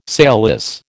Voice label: "sailless", American

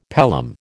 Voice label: "pelham", American

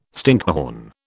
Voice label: "stinkhorn", British

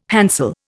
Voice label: "hansel", Indian

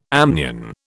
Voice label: "amnion", British

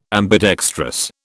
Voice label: "ambidextrous", British

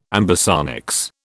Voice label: "ambisonics", British

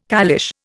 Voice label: "caliche", Indian

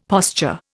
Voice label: "posture", Indian; Dictionary

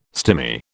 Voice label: "stimy", British

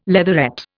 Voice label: "leatherette", Indian

